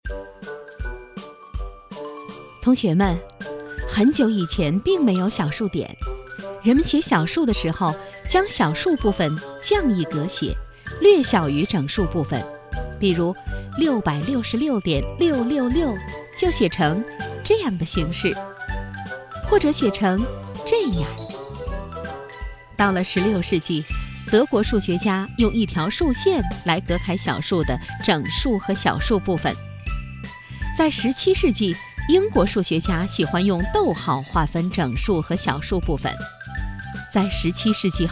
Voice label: 课件; 南师附中课件小数

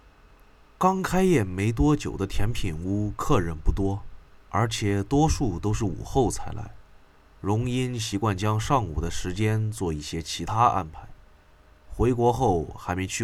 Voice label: 都市小说旁白

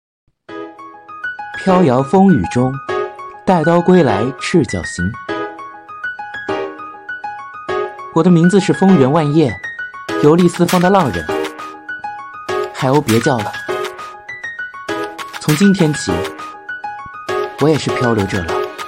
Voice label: 少年

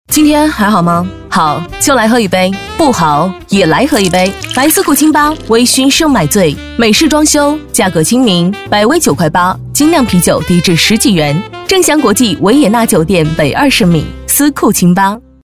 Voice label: 广告品质酷感; 斯酷清吧

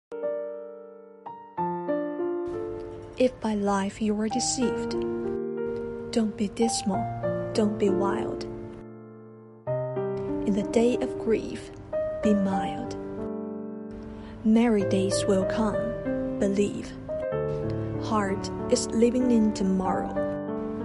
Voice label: 英文 独白

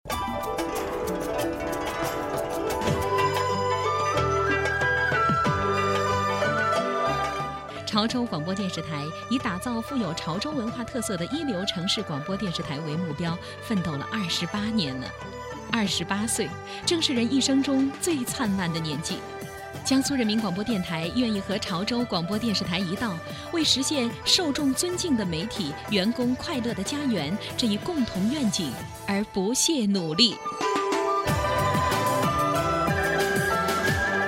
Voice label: 广告亲切; 潮州台年台庆祝福

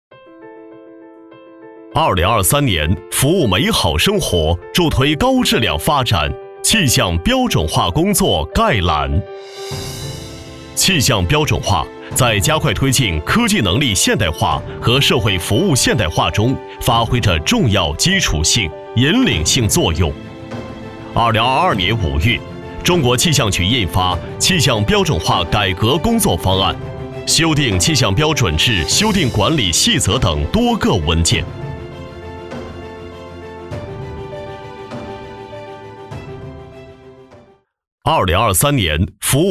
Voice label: 气象标准化

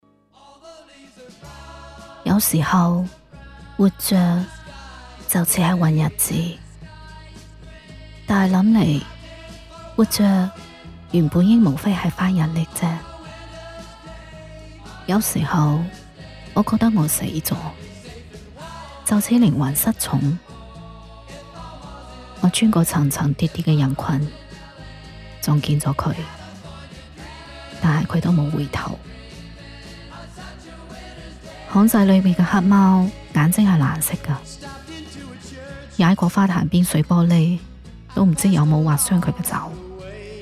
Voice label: 粤语王家卫风格

